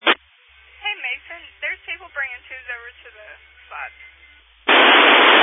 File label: Family Radio Service (FRS)